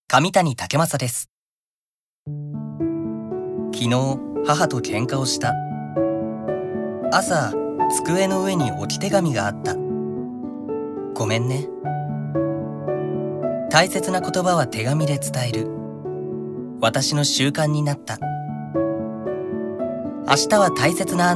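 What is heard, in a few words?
commercial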